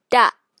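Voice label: "တ", Burmese; Татэлинджэй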